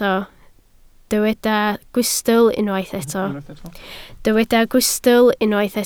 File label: "gwystl", Welsh